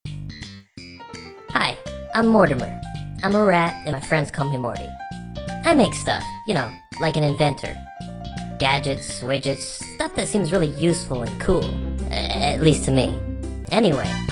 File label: VO cartoon